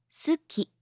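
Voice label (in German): "Suki", japanische Mädchennamen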